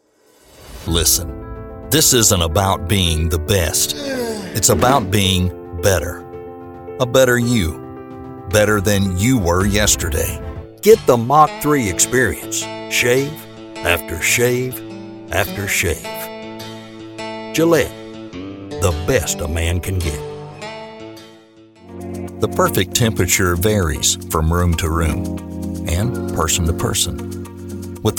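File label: American English, General; GoLocalise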